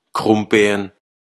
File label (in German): Platt Kartoffeln